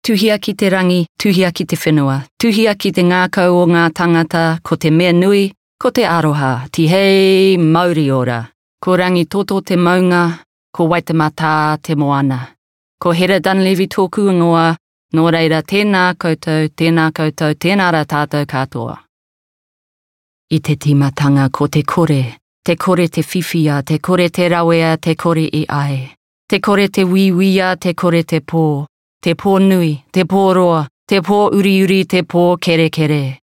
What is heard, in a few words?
TeReo; BigMouthVoices